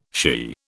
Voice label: "shea", British